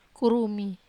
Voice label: "kurumi", Wamesa